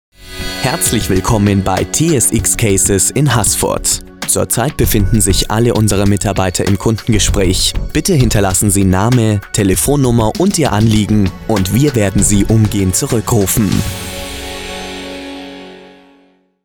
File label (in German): Ansage; TSX Cases